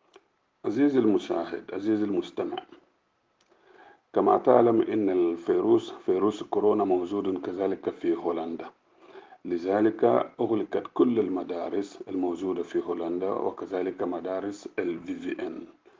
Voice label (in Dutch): gesproken tekst arabisch